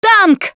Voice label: warn tank, russian, Survivor Voice Producer

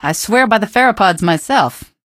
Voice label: pheropod nag, male; Garry's Mod; hl2; bugbait

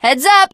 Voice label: throw items, teen girl; Left 4 Dead 2

